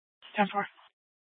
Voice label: Mesa PD Fiesta Dispatch; 1 Fiesta Dispatch